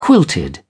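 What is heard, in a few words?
"quilted", British English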